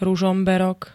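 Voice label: "Ružomberok", Slovak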